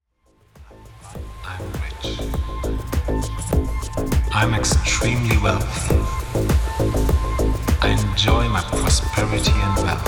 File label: affirmation English